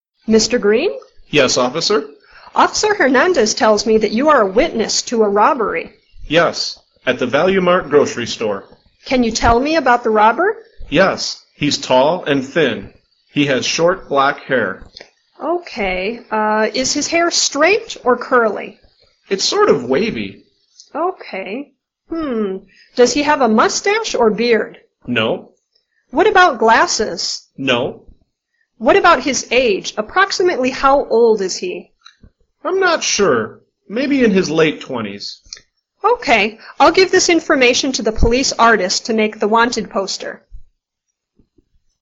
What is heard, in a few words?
Dialog